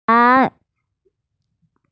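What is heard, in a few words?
"pan2", Mandarin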